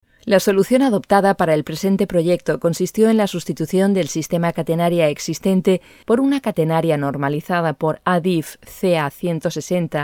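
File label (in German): Spanish, Kommentar, Neutral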